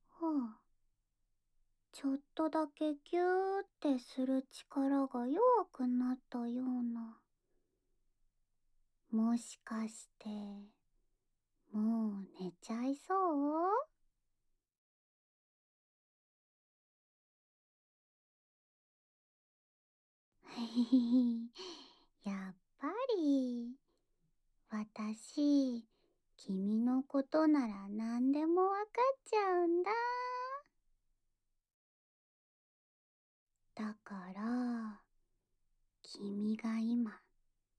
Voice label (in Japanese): ラブラブささやきボイス; いっしょぐらし 〜ゆるふわ彼女編〜